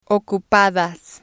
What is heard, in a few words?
"ocupadas", spanish